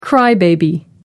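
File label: "crybaby", British English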